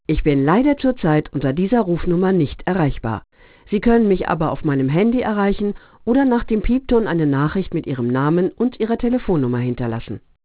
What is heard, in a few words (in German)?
ansage (w)